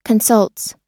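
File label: "consults", US English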